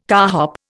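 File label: "carhop", Indian English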